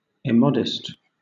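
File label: "immodest", British English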